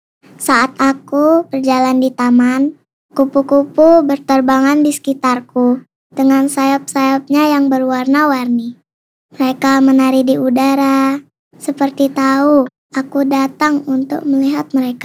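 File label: child, story telling; INDONESIA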